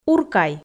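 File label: "уркай", Komi, female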